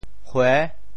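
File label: "hue6", Teochew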